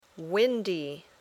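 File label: "windy", English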